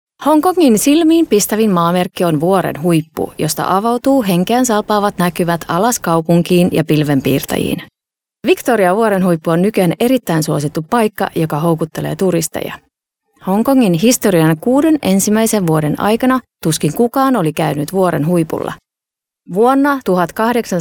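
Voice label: Finnish female corporate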